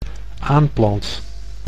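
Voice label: "aanplant", Dutch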